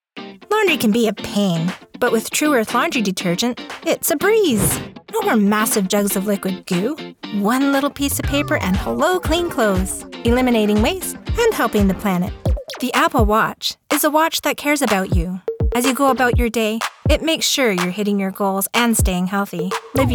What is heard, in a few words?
Commercial